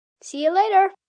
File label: "See Later" Child, Goodbyes, child, boy